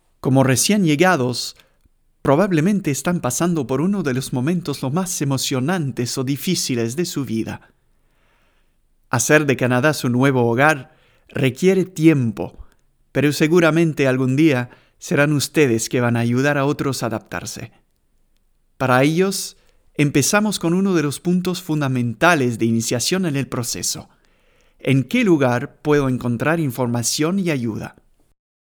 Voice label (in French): voix esp